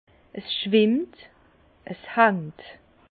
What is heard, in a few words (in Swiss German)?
"schwimmt", Bas Rhin; vin; en suspension